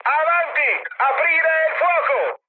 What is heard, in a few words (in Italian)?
attack position, mood high, speech it; War Thunder